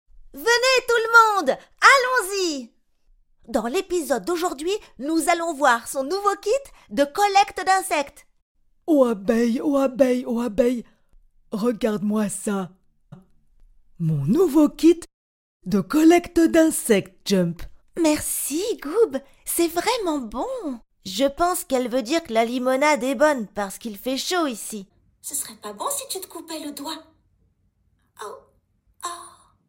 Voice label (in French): voix dessins animés